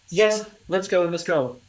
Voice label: Voice Acting, es, M

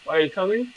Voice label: Voice Acting, es

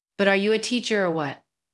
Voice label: Voice Acting es

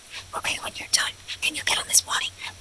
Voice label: Voice Acting, es, F0

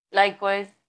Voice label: Voice Acting es

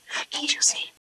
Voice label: Voice Acting es

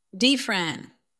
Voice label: VoiceActing es F

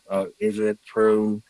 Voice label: Voice Acting es M

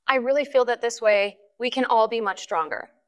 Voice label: VoiceActing es

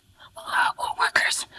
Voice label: Voice Acting es

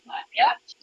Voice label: Voice Acting, es, F0

